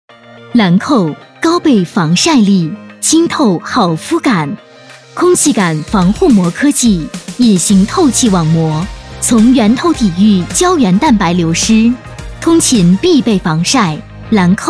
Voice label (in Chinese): 女 广告 动感; 兰蔻小白瓶